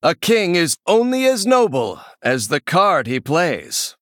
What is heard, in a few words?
Male Human Emote